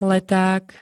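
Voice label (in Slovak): "leták", Slovak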